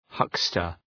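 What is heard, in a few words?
"huckster", English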